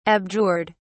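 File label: "abjured", British English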